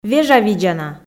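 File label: "вежавидзана", Komi, female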